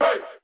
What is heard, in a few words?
"hey", Voice